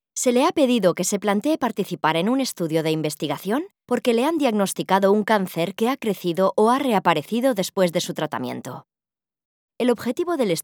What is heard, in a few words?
spanish medical narration